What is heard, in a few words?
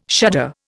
"shudder", Indian